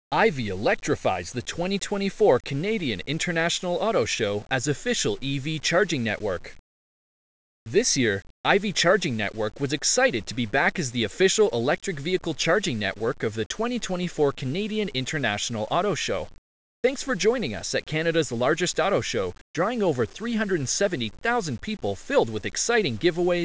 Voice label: Blog Voiceover; Ivy electrifies the nadian International AutoShow as Official EV Charging Net